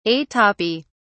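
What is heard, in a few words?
"atopy", English, uk British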